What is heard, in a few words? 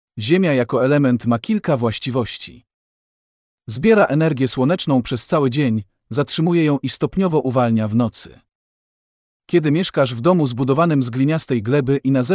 POLISH